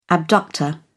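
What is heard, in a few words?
"abductor", British English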